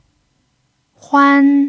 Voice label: "huan1", chinese

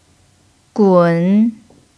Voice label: "gun3", chinese

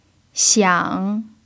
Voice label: "xiang3", chinese

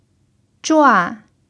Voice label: "zhua4", chinese

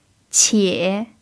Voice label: "qie3", Chinese

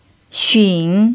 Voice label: "xun3", Chinese

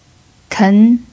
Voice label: "ken2", chinese

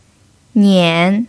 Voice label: "nian3", Chinese

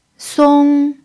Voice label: "song1", Chinese

